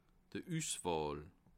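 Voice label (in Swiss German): "uswahl", Bas Rhin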